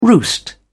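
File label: "roost", English, British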